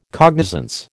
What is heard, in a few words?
"cognizance", American